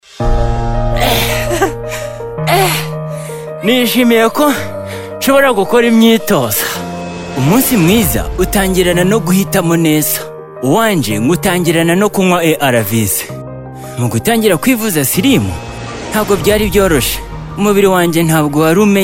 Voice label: Time Up HIV Spot New on Treatment Rufumbira